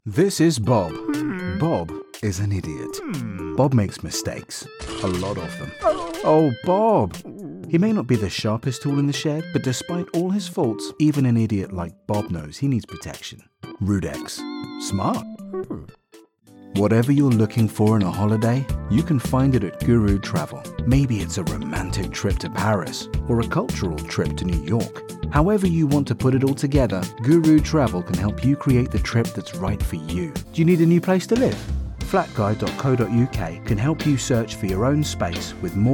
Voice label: Commercial; British, London-based Voice Actor; Commercial Reel